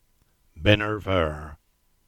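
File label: "Great Binner" Cornish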